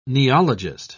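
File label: "neologist", British English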